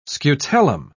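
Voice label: "scutellum", English, British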